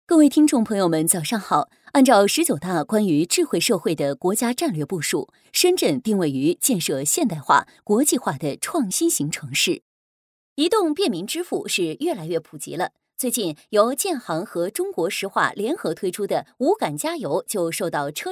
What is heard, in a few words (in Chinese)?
女 新闻 民生类 前后不同感觉